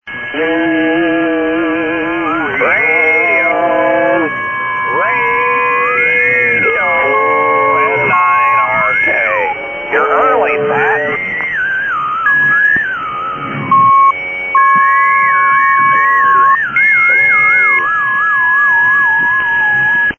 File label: SSB Net Tune-Up